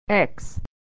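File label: "x", American English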